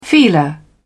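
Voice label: "feeler", British English, dictionary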